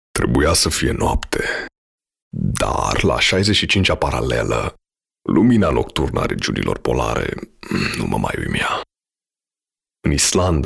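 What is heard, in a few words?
Romanian, male, VO, audiobook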